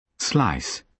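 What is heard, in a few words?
"slice", British English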